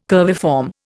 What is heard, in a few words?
"curviform", Indian